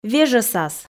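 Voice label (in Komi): "вежӧсас", Komi, female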